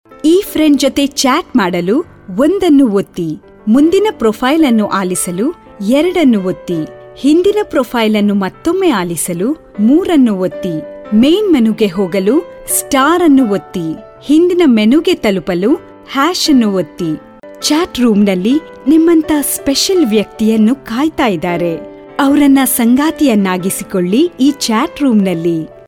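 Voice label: Kannada general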